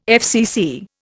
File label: "FCC", Indian